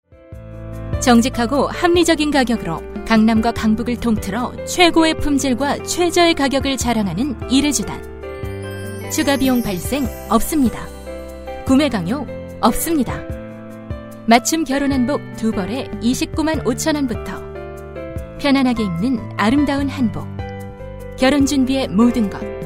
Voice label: 韩语女 外语 小语种

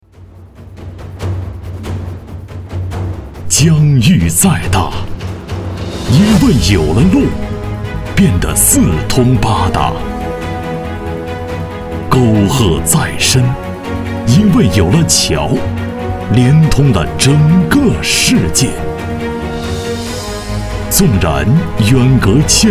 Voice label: 男国 专题 政府 低沉; 内蒙古; 内蒙古联手路桥

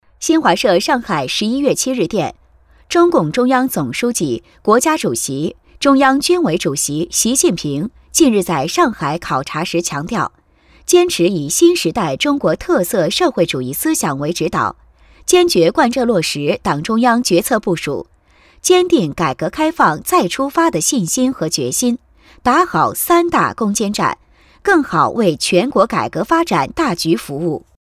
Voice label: 专题 新闻 上海考察强调; 习近平上海考察强调

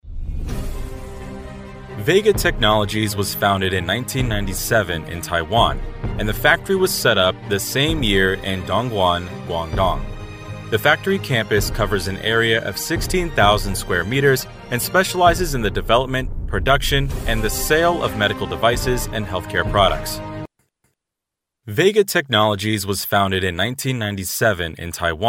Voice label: 外籍男英 外语 美式英语 专题 稳重

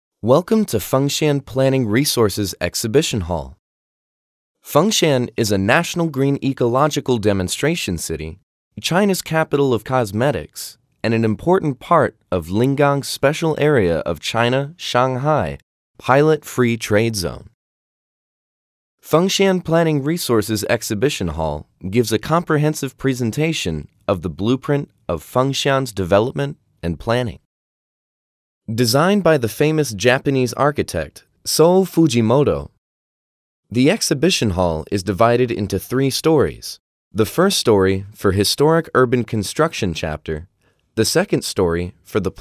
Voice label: 外籍男英 外语 美式英语 景区类样

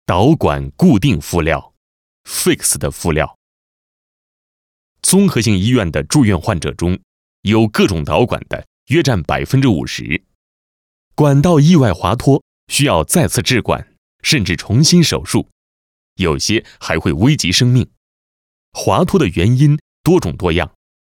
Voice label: 多媒体 培训课件 医院课件, 男国

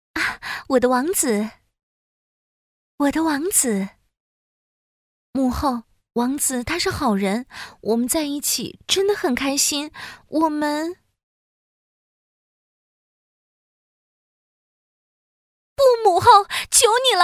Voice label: 动画 动画片; 白雪公主

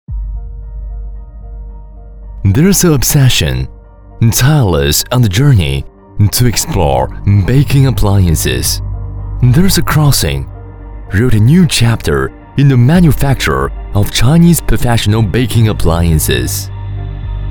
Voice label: 男英 宣传片 企业 公司 磁性; 英语

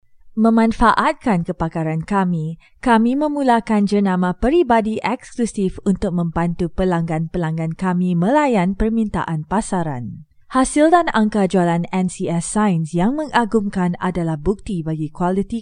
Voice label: 马来西亚语女 外语 小语种

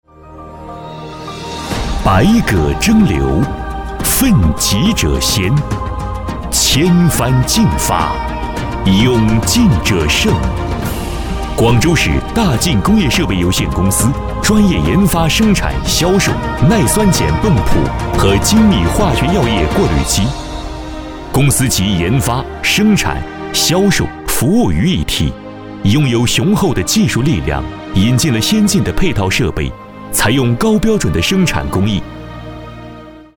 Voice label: 男国 专题 企业 浑厚; 设备有限公司

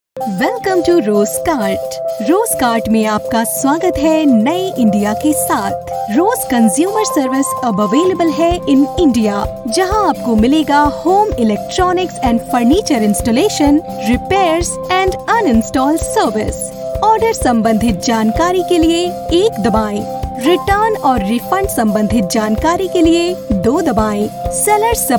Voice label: 印地语女 外语 小语种 时尚

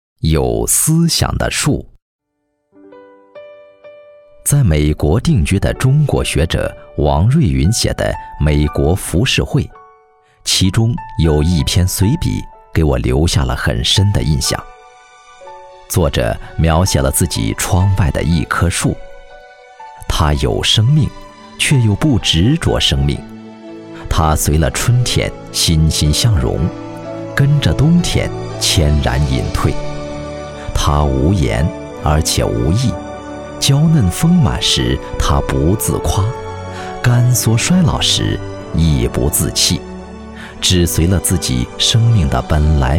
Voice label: 朗诵; 有思想的树

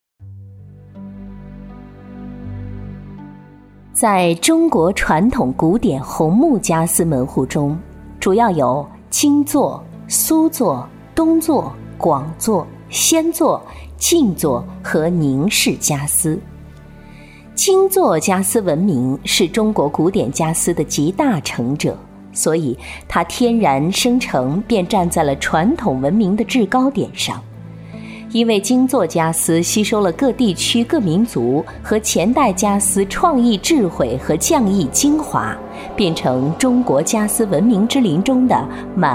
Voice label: 专题 产品 红木家具 讲述

